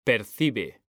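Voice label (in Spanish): "percibe" Spanish, male; mekanta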